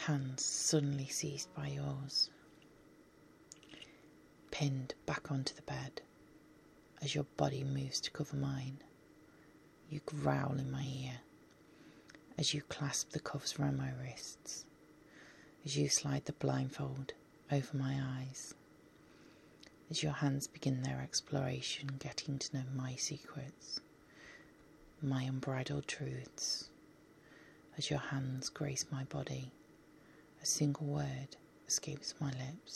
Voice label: Slow touch, Erotic poetry, Sensual, Whipsers; Roaming hands; Sensory; British Accent